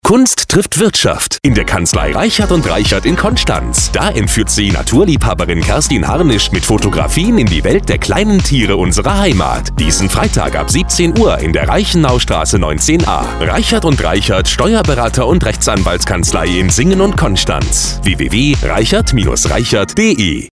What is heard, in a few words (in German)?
Spot; Reichert&Reichert; kanzlei